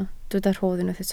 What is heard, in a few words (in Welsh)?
"rhodd", Welsh